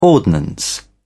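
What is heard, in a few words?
"ordnance", English, British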